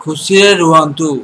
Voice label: cheers, odia

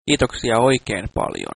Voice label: "thanks", Finnish

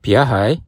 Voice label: fine, bonggi

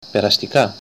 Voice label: get well soon, greek

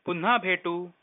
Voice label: goodbye, marathi

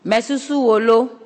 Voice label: i miss you, ewe

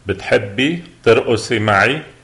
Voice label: dance, lebanese, arabic

